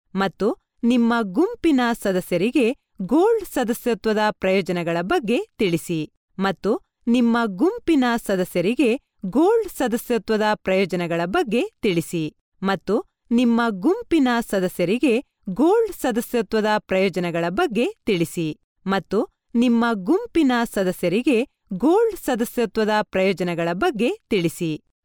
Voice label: female kannada voice over narrative